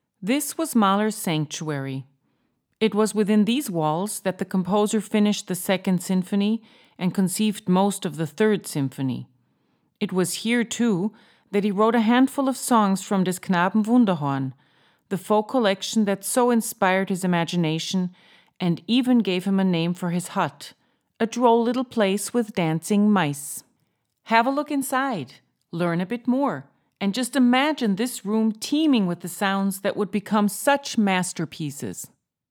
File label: audioguide; Gustav Mahler; eng